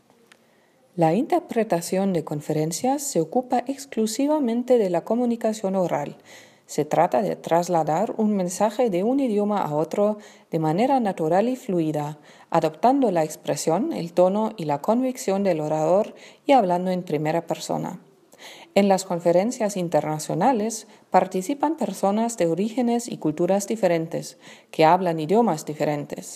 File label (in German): Sprechprobe ES